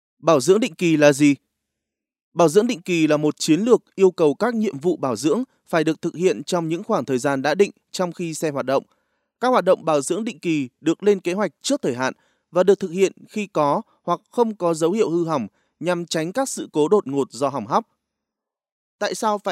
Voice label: vietnamese e-learning